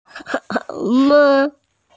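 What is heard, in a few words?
"pi2ao3" Mandarin Chinese, female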